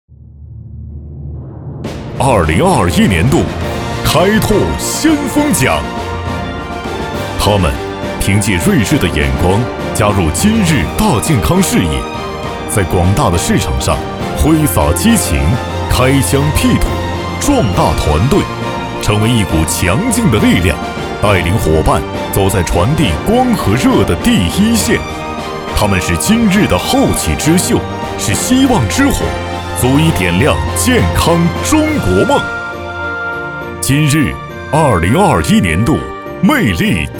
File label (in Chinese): 男 颁奖 杂志; 今日健康